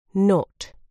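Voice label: "not", British English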